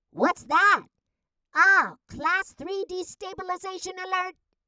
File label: ALIEN VOICES; DIALOGUE; PST; ZORP